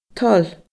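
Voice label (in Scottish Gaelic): "toil", Scottish Gaelic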